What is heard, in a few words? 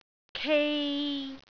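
"kei3", Cantonese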